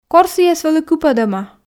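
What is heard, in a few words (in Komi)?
кор сійӧс вӧлі кыпӧдӧма, Komi, female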